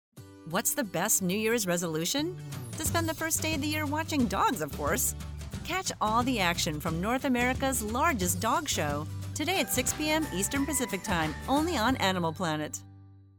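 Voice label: promo voiceover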